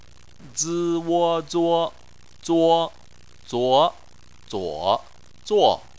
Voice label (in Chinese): "zuo", Chinese